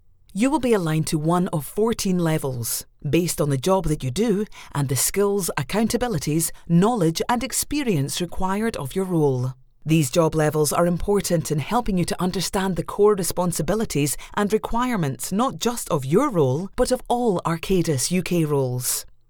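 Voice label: Authoritative Corporate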